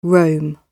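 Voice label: "rome", British English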